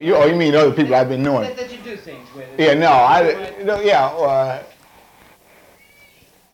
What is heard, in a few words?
Conversation with 41 year old black male Detroit Michigan, american english dialect